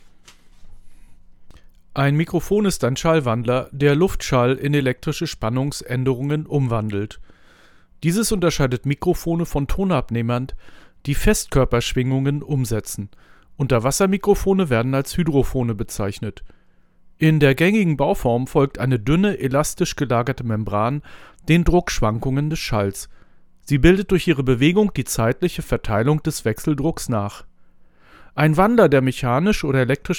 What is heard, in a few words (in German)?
Behringer B1 mit Windschutz; Probeaufnahme; Mikrofon